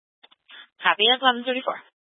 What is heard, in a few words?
Fire Department Dispatch; Grand Junction